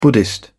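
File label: "buddhist", English, British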